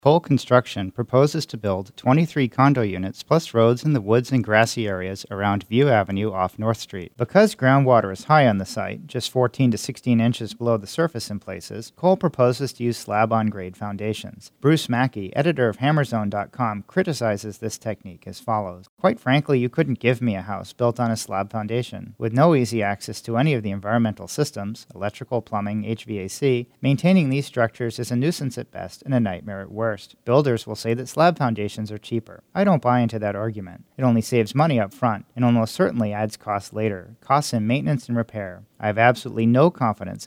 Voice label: spot vox